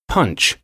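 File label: "punch", English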